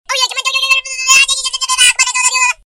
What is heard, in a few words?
crazy funny voice; minion